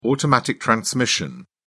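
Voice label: "automatic transmission", English, British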